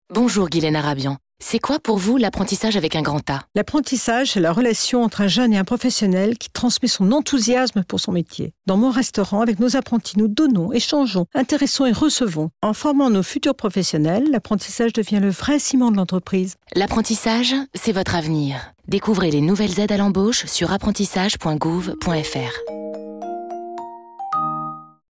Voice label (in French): APPRENTISSAGE RADIO MIX ARABIAN